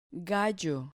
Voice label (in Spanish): "gallo", Spanish